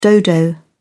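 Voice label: "dodo", British English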